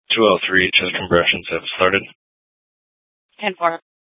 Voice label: Scottsdale PD Sth Dispatch, scanner

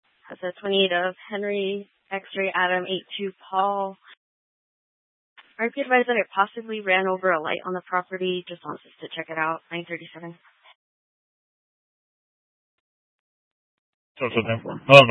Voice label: Scottsdale Police, Simulcast

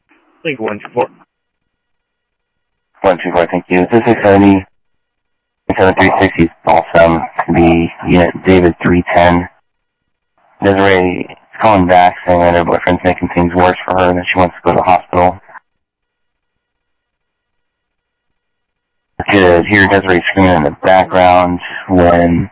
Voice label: South Scottsdale Sheriff, scanner audio

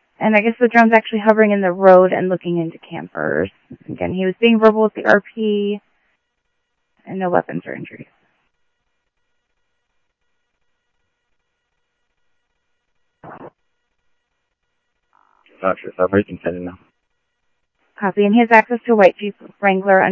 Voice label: Mesa Simulcast, Mesa PD, Police Dep

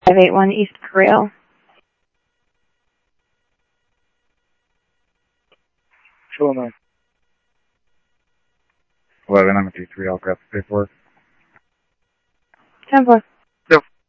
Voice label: PD Police, scanner audio

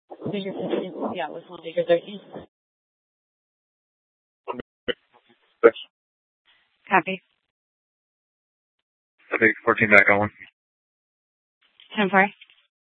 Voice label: Police Department Dispatch, scanner; Mesa; TOPAZ Regional Wireless Cooperative (TRWC); Mesa Simulcast; Fiesta

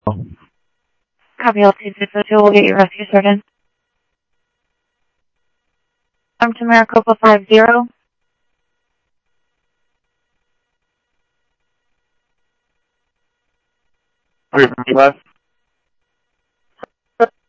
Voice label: Phoenix Fire, scanner; H-Scottsdale; PHXFD K7

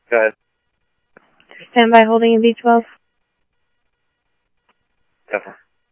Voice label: Police, scanner audio

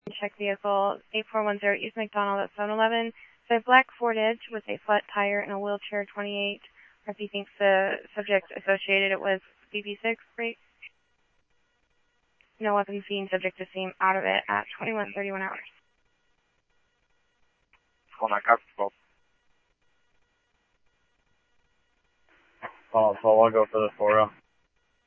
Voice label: Scottsdale Police, scanner

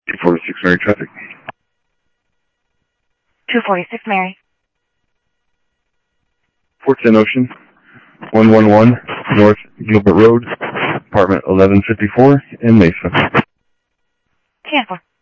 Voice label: Central Sheriff Central, scanner audio; MCSO Central; ThompsonPeakScot

